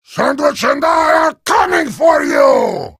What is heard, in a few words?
heavy sandwich taunt, vo